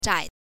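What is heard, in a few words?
"zhai", Mandarin Chinese, neutral tone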